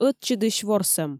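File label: "ӧтчыдысь ворсӧм", Komi, female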